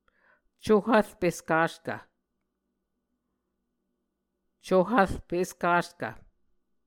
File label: "co-hvrp-eskaskv", muscogee